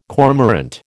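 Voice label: "cormorant", American English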